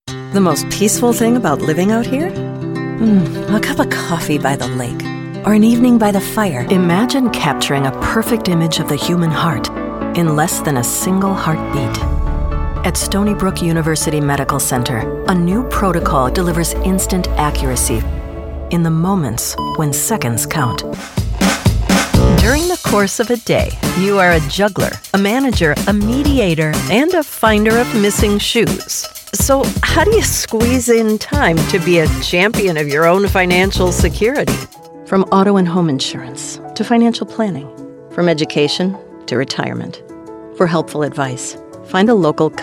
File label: Commercial